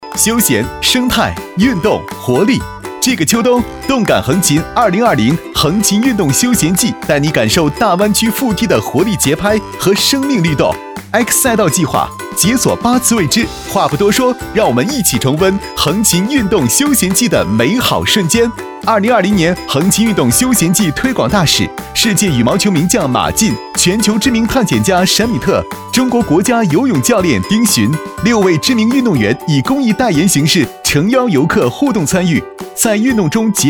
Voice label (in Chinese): 男 电视节目 真人秀节目